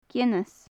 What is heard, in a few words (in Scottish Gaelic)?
"geanais", gaelic; Faclan Nàdair